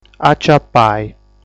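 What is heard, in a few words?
"Aciapai", Sardinian word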